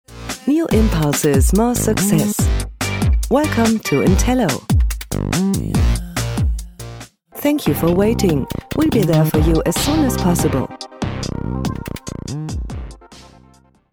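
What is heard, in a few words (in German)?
Warteschleife Englisch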